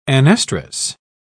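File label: "anestrus", British English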